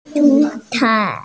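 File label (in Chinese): "shu1cai4", Mandarin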